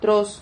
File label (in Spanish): "troz", Silabas